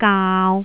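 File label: "gaau3", Cantonese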